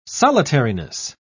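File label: "solitariness", English, British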